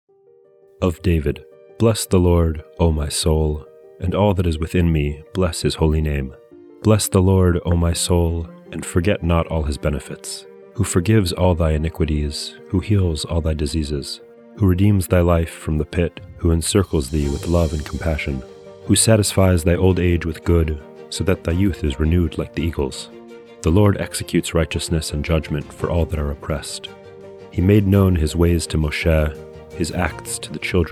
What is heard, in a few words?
poetry and prayer psalm english; Meir Soloveichik